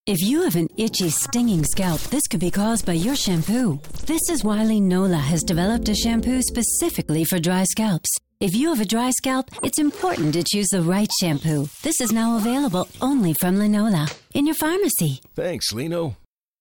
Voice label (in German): werbung off shampoo national commercial; linola